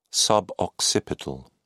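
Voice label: "suboccipital", British English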